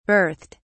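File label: "birthed", British English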